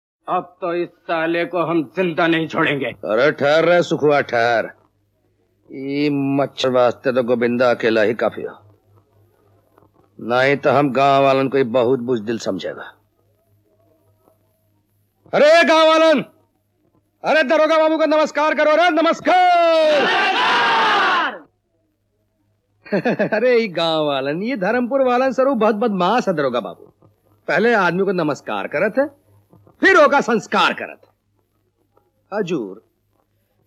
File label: Dialogues, Hindi